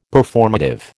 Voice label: "Performative", American